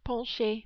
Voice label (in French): "pencher", French